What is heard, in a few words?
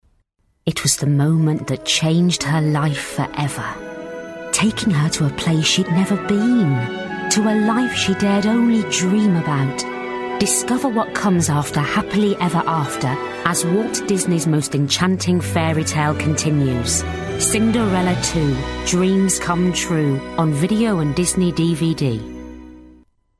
Commercial; Cinderella